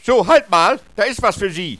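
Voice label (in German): "halt", äusserungen westfalen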